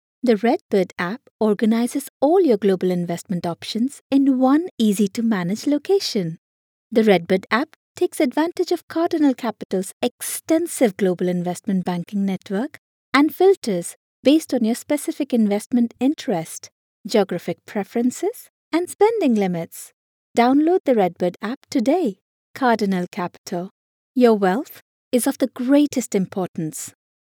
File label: Explainer